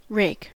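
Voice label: "rake", American English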